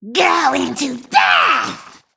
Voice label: Female Spider Druid Attack

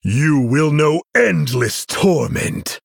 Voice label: Male Human Start

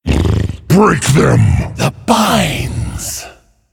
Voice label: Male Wild God

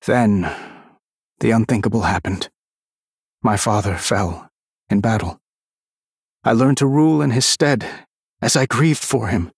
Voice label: Male Human; Anduin; Pre Mission8

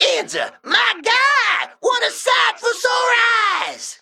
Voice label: Male Mech Emote Start; Inzah

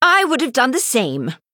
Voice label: Female High Elf Emote

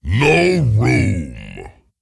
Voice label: Ogre Emote

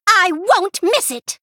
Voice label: Female Human Attack

